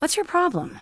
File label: Human Female Warrior NPC Pissed